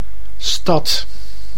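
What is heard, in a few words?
"stad", Dutch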